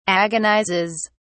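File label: "agonizes", British English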